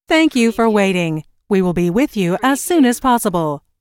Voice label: US female phone prompt Thank you for waiting - We will be with you as soon as possible; lynne publishing